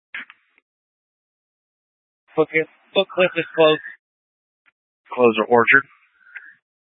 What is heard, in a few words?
Tactical Incidents, scanner